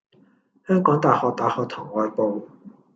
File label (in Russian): Голоса, Гонконгский